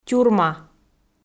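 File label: "тюрма", Komi, female; audio dict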